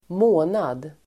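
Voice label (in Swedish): "månad", Swedish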